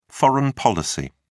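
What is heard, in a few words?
"foreign policy", British English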